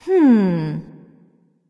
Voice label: thinking; smite; serqet